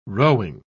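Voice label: "rowing", British English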